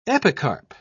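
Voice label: "epicarp", British English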